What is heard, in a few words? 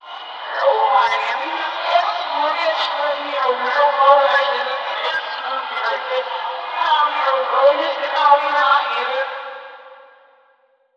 voice, voice announcements; The Swapper